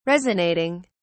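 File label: "resonating", British English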